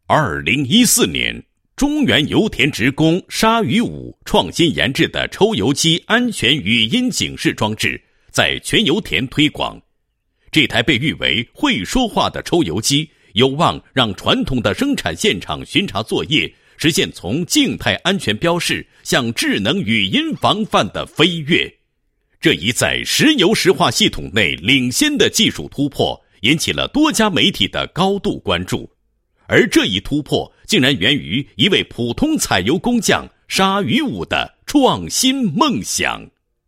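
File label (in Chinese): 男 人物简介 油田职工简介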